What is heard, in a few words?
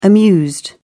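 "amused", British English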